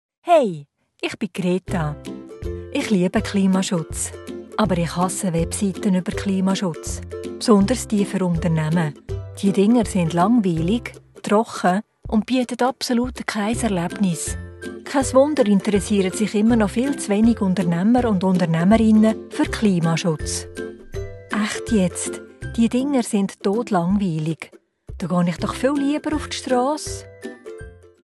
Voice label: german switzerland animation

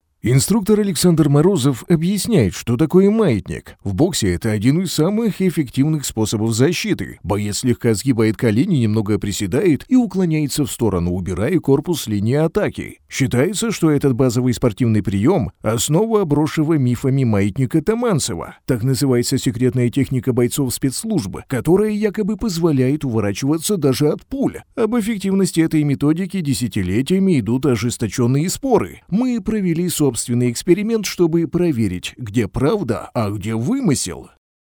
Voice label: russian narration

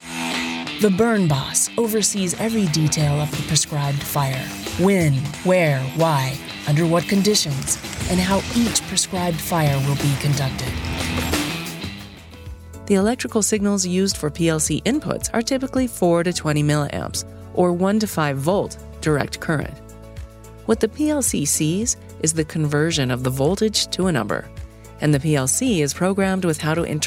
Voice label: english american explainer videos; SOVAS award-winning voice actor

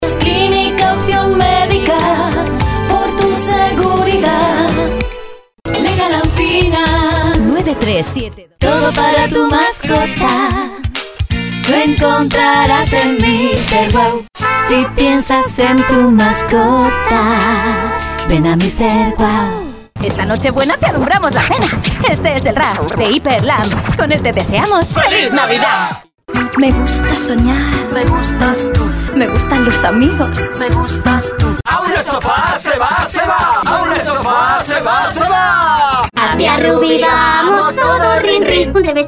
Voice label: spanish others

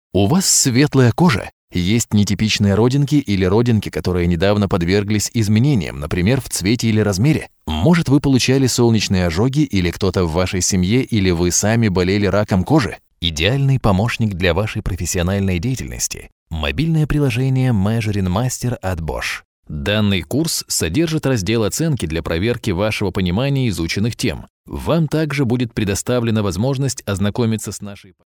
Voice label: russian narration

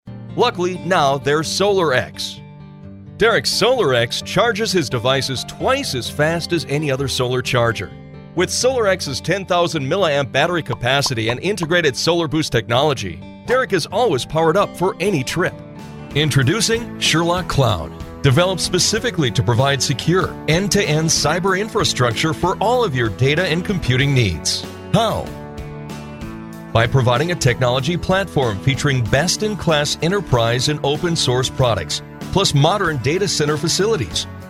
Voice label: english american corporate videos

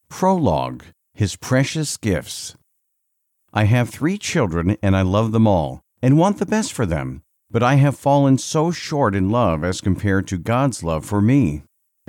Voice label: english american audiobooks; professional American voice talent

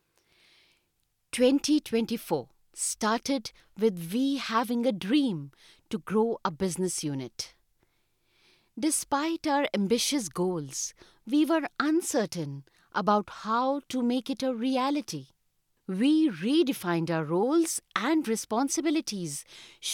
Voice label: english indian explainer videos; Englisch und Hindi

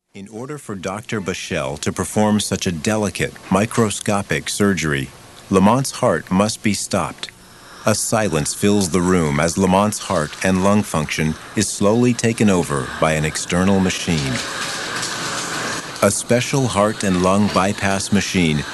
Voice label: english american documentaries

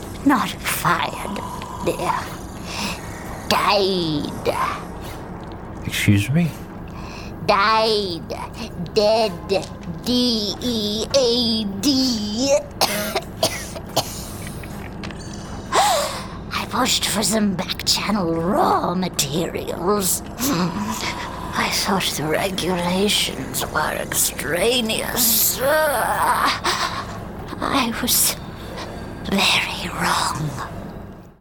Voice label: english american podcasts; Synchronsprecherin; Heimstudio in Chattanooga

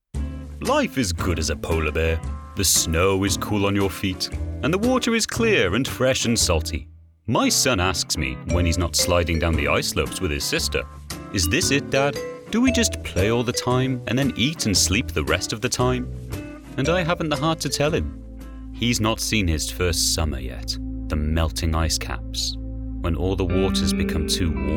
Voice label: english british animation

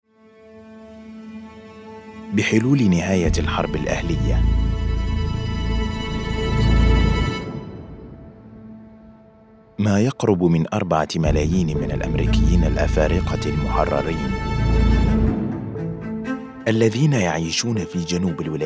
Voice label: arabic documentaries

